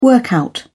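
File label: "workout", British English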